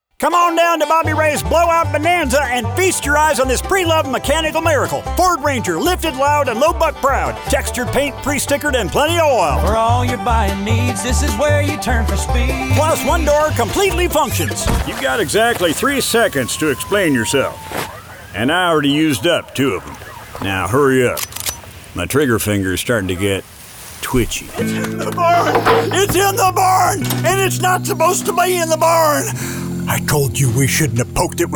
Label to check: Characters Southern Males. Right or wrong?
Right